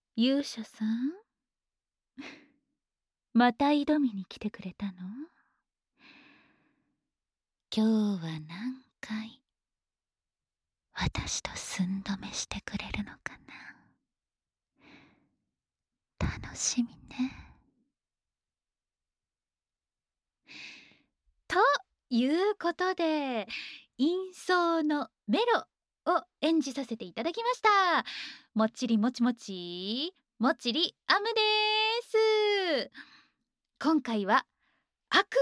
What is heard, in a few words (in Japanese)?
フリートーク, ASMR; SEなし; 【総寸止め回数55回】マゾ殺し四天王・寸止めのメロ-「フェラで♪オナホで♪パイズリで♪」圧倒的強者に寸止めさせられるほどチンポが敏感になる我慢地獄へようこそ♪